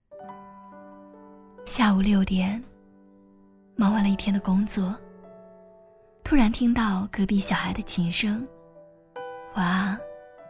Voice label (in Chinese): 独白